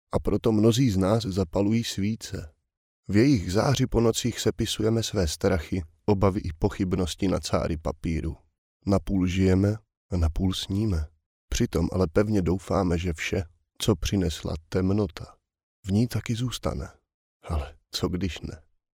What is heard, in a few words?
male czech voice over character